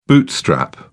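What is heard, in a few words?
"bootstrap", British English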